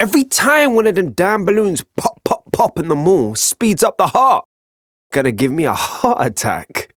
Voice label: Ambient Barks, en; Warframe; Mall Romance; Quincy